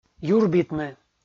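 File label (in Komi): "юрбитны" Komi, male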